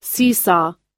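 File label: "seesaw", US English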